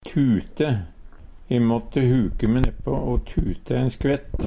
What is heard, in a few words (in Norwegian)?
"tute", Numedalsmål; E måtte huke me nepå o tute ein skvett.